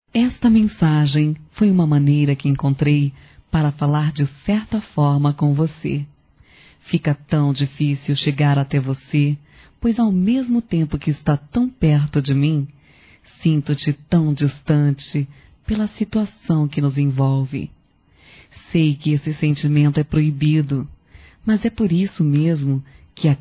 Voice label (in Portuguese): Feminino; Amor Proibido Feminino